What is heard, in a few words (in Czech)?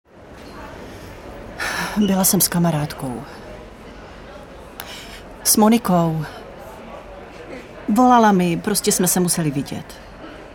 ukazka dabing